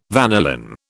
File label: "vanillin", British